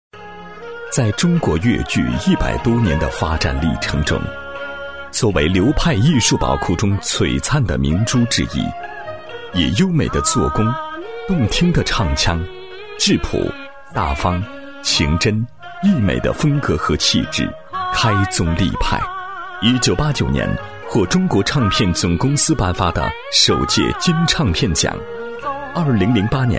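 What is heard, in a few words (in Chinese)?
男 人物专题【综述片 讲述感】; 厚重磁性; 王文娟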